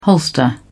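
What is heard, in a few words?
"holster", British English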